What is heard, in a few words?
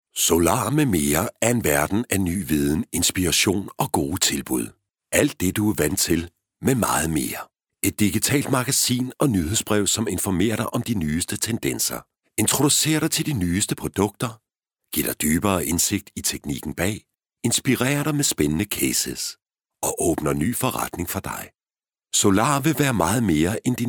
male danish voice over narrative